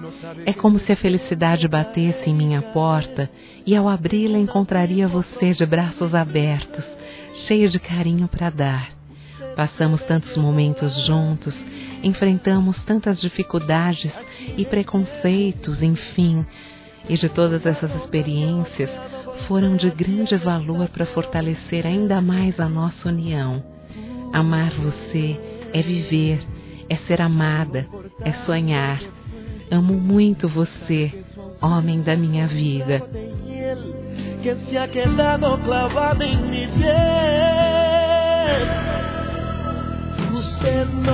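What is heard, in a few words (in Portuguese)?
Rom eterno amor, feminino; Telemensagens; Românticas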